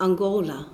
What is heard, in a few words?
angola, nl; gcompris-data; geography